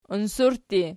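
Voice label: "unsurtti" nominative, Archi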